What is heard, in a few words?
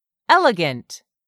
"elegant", English; 3000 words